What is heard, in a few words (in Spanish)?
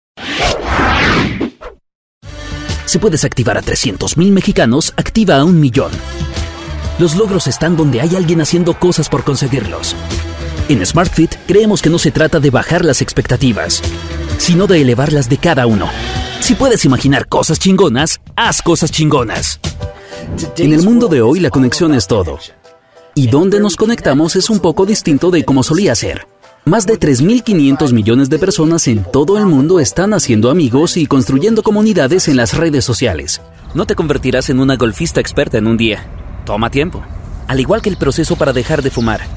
Reel Español Neutro